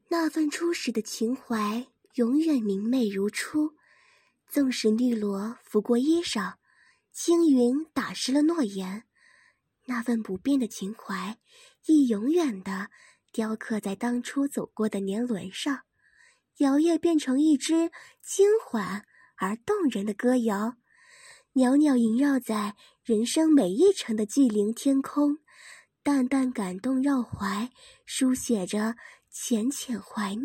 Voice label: 温柔的旁白

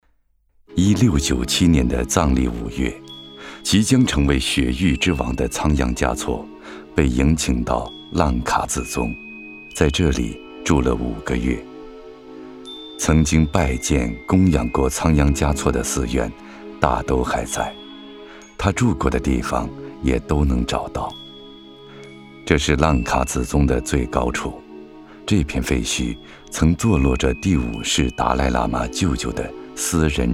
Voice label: 男 人文纪录; 男311人文纪录仓央嘉措

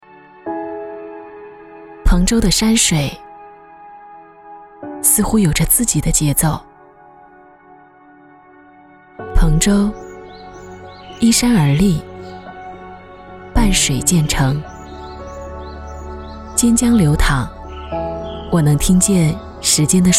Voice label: 专题大气

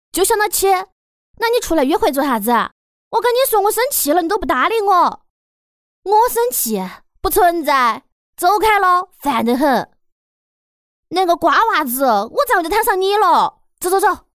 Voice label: 女声四川话

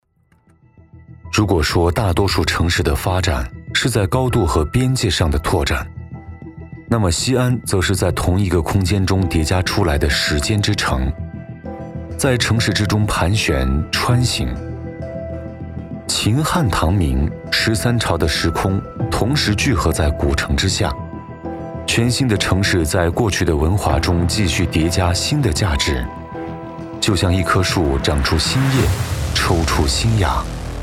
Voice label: 纪录片; 西安

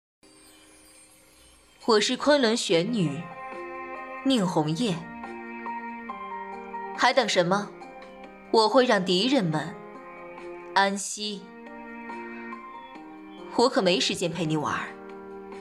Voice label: 角色音; 永劫无间; 宁红叶; 迦南; 胡桃; 妖刀姬; 崔三娘

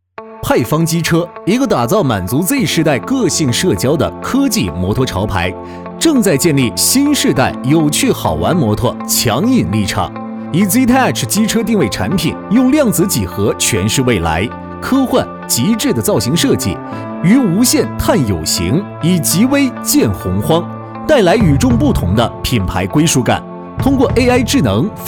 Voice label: 解说 机车

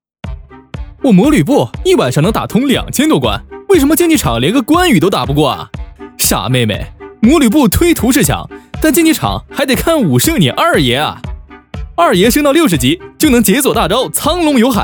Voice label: 信息流; 抖音; 我魔吕布

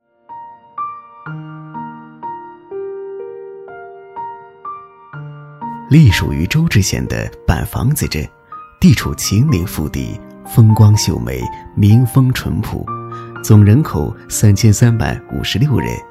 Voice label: 娓娓道来人物

